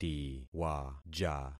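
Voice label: "tiwaja", concatenated syllables; Affixing experiment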